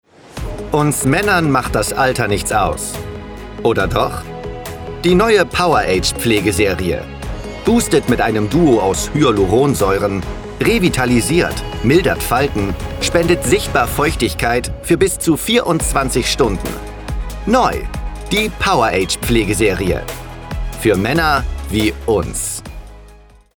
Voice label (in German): Werbung Beauty